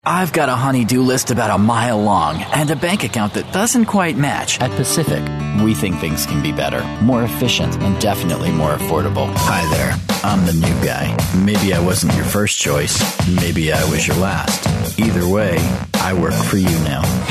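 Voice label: US English, male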